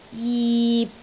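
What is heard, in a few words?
"jip6", Cantonese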